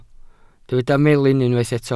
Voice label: "melin", Welsh

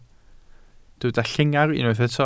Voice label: "llengar" Welsh

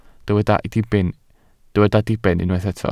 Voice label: "diben", Welsh

